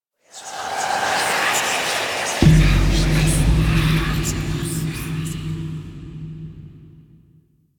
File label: Requiem Mod Murmurs; Warframe; Kuva Lich